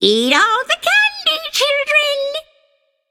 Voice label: vox emote; smite; babayaga